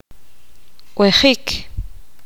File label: poqomam; caminar